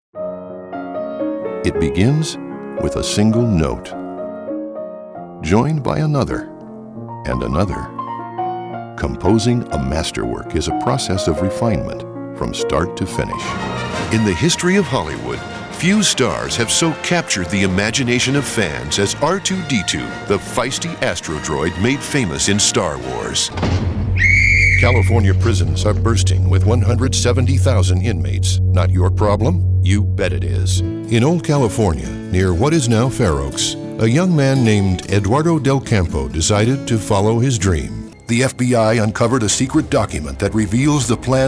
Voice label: narrations